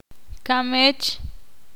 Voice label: ixil